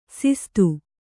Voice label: "ಸಿಸ್ತು", Kannada

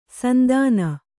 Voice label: "ಸಂತಾನ", Kannada

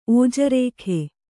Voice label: "ಓಜರೇಖೆ", Kannada

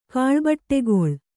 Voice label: "ಕಾಳ್ಬಟ್ಟೆಗೊಳ್", Kannada

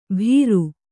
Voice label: "ಭೀರು", kannada

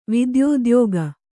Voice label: "ವಿದ್ಯೋದ್ಯೋಗ", Kannada

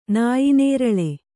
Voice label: "ನಾಯಿನೇರಳೆ", Kannada

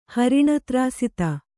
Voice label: "ಹರಿಣತ್ರಾಸಿತ", Kannada

